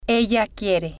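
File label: "ella Quiere", Spanish, female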